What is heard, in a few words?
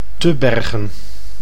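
"Tubbergen", Dutch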